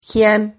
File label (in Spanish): "gian", Silabas